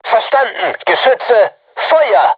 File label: voice message art shoot, crew tanks german